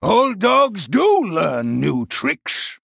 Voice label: Male Human Play